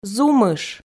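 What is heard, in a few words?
"зумыш", Komi, female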